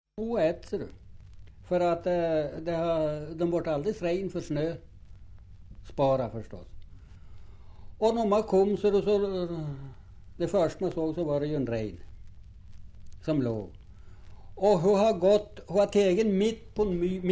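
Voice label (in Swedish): Hotagen Jämtland, svenska sagor dialekter; Folkminnen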